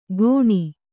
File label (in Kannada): "ಗೋಣಿ", Kannada